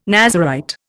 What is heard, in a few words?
"Nazarite", Indian